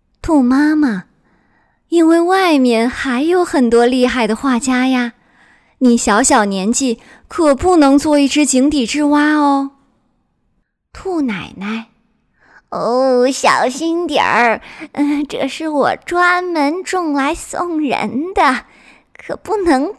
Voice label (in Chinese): 成女; 火火兔; 给火火兔中的成女配音